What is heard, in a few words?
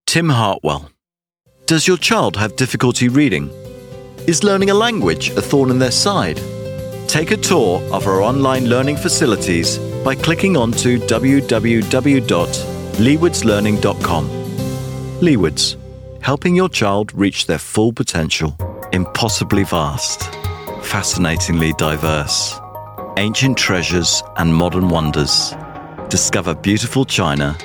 commercial voice reel